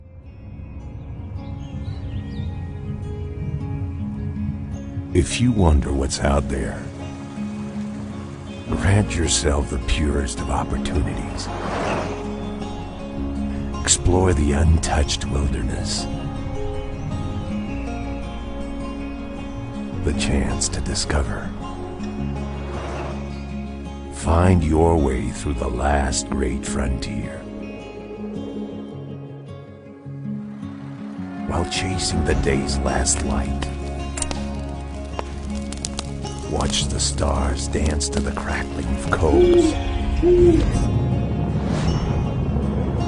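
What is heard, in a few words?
US English, Commercial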